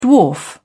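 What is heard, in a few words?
"dwarf", British English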